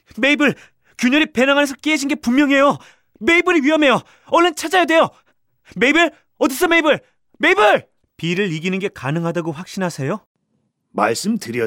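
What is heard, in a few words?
Korean male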